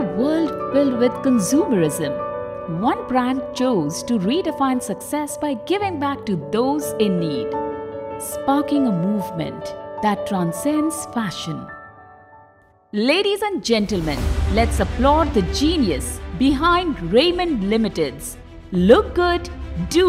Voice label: Promo English